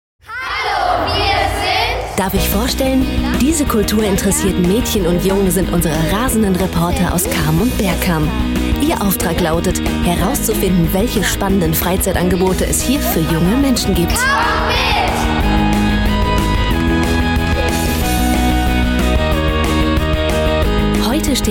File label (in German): Lebendig